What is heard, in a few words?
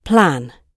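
"plan", British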